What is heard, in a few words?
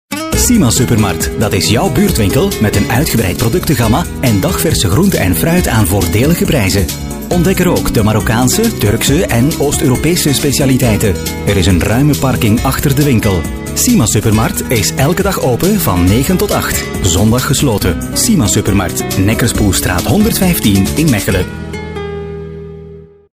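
flemish male commercial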